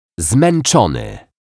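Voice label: "zmeczony", Polish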